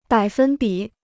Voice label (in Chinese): "百分比", Chinese